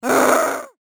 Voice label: Witch Growl